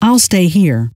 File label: I'll stay, scientist female